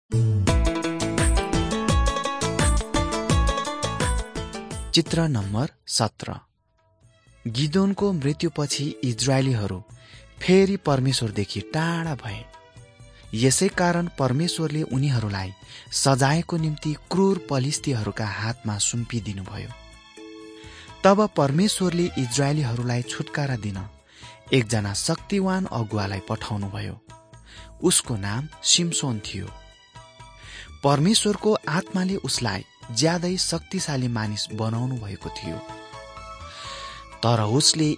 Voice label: victory through god nepali

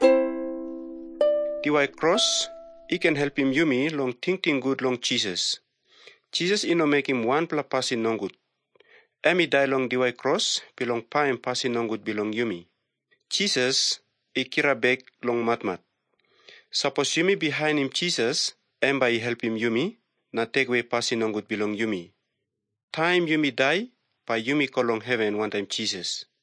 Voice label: tok pisin; png nt drama; can god forgive me

